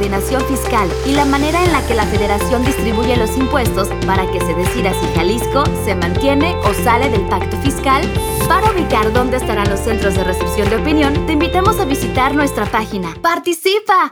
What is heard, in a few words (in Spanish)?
Consulta popular Radio